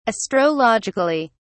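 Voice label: "astrologically", British English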